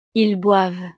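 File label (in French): "ils boivent", French; Ellos beben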